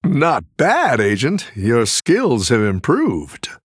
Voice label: Male Human Low Health; Scabbs

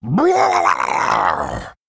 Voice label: Male Murloc Play

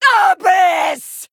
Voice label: Female Voidwalker Attack

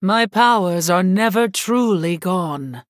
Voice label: Female Human

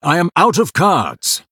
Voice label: Male Blood Elf

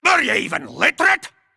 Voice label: Male Dwarf Attack

